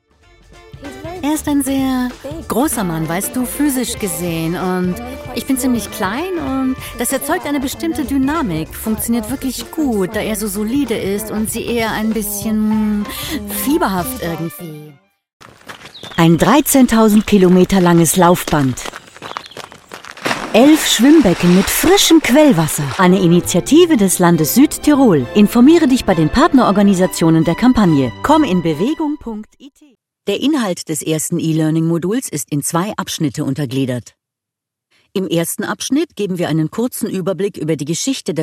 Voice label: audio reel German